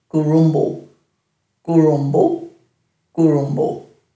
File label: "gurumbu", Dhurga